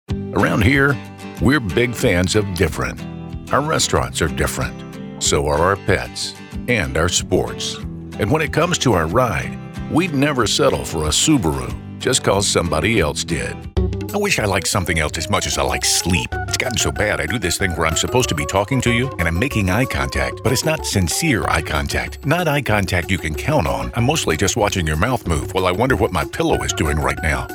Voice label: Commercial, voice